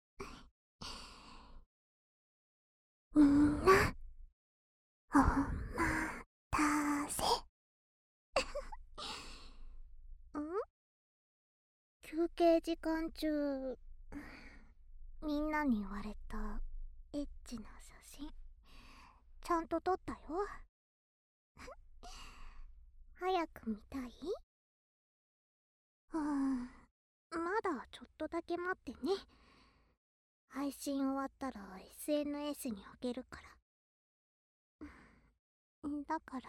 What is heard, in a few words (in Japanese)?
耳元マイク; 耳元吐息☆バイノーラル; みふゆのアソコの音、配信しちゃいます♪